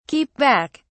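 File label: "keep back", British English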